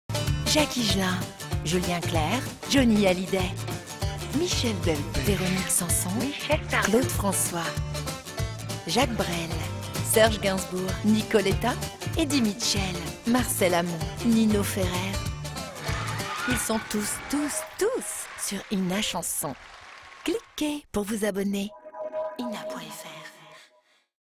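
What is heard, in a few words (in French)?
chanson complice sucree, comédienne voix off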